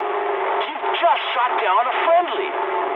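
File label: English player killed ally mood med; War Thunder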